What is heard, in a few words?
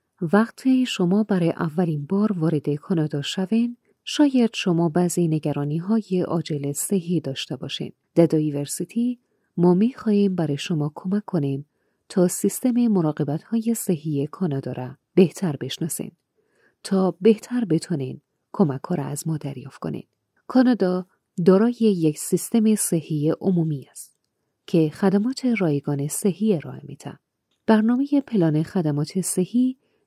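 Dari, Female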